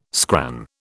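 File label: "scran", British English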